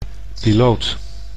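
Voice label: "piloot", Dutch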